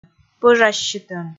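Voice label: "пӧжассьытӧм", Komi, female; kpv audio dict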